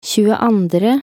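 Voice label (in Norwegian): "tjueandre" Norwegian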